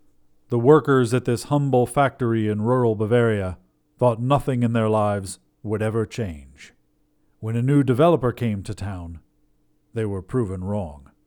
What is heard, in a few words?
factory trailer